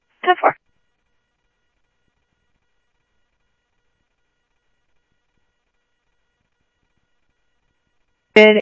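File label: Lakes Sheriff Lake Patrol, scanner audio trunk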